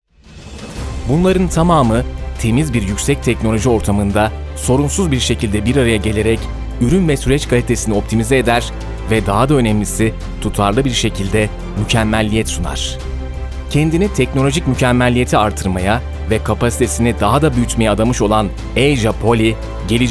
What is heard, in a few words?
turkish corporate videos